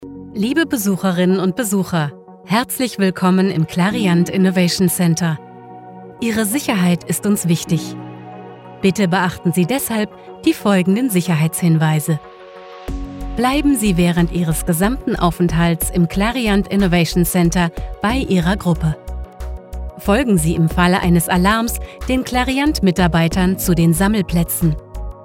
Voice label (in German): DE Sicherheitsfilm; CLARIANT